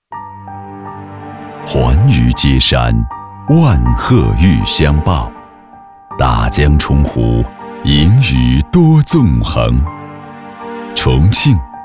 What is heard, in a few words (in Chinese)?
大气深沉 重庆, 男